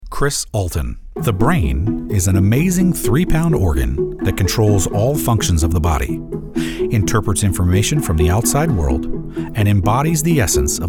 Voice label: Narration